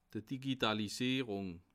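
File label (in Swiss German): "digitalisirung", Bas Rhin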